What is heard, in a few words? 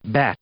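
"bat", English; noun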